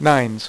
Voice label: "nines", Dealer's Speech; PowerPOKER Demo